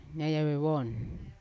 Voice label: "nayave von" beach, Yawa

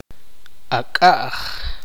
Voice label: "nuevo", Tektiteko

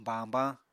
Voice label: "bañbañ", Apatani

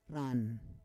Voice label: "ran", Roon

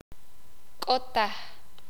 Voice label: "nada", sakapulteko

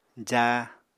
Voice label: "jáa", apatani

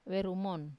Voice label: "verumon", witch, wamesa

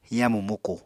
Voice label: "yamu muku", Apatani

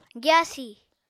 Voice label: "gyaasi", Apatani

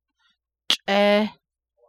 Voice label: navajo adverb in vain futilely try try in vain

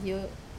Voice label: "o", say, Wamesa

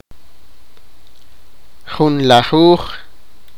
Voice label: sipakapense; eleven

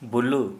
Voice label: "Thigh", Santali

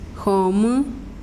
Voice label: "tierra", mazahua

